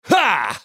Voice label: spellcast effort; Jayce